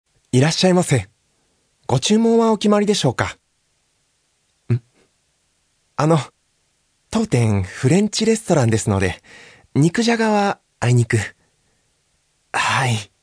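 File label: Narration Kyara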